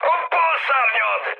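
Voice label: Hungarian left wing mood high, speech; War Thunder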